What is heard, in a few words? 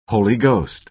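"holy ghost", American English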